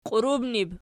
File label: "qiurumnib", Archi